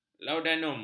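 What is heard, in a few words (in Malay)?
"laudanum", Malay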